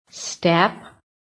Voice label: "STEP", american